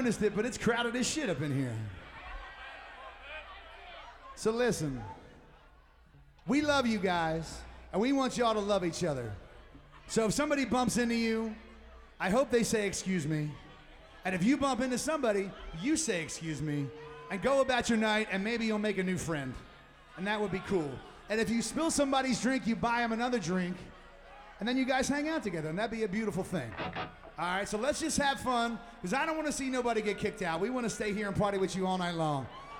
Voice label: Village Pub PSA